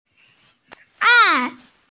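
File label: "er4", Mandarin Chinese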